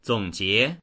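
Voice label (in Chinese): "zong3jie2", Mandarin Chinese; 总结; 総括する、まとめる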